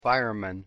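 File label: "fireman", English pronunciation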